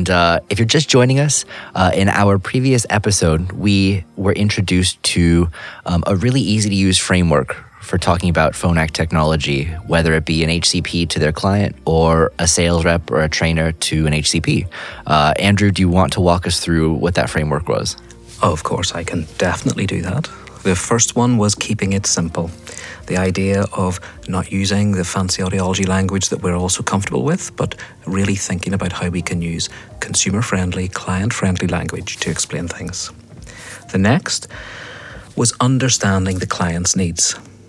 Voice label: Podcast; Sonova; Feature Focus Podcast